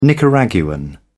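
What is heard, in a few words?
"nicaraguan", British English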